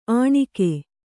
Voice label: "ಅಣಿಗೆ", Kannada

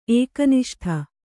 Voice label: "ಏಕನಿಷ್ಠ", Kannada

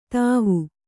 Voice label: "ಥಾವು", Kannada

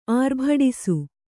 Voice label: "ಆರ್ಭಡಿಸು", Kannada

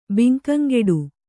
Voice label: "ಬಂಕಂಗಡಿ", Kannada

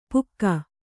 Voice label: "ಪುಕ್ಕ", Kannada

